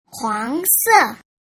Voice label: "huang se", Mandarin